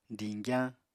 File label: "diñgyañ", Apatani